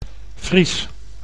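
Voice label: "Fries", Dutch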